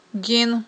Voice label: "гин", Aghul